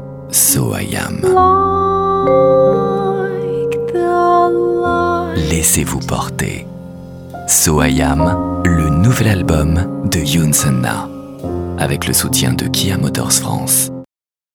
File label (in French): elegant, Voix off disque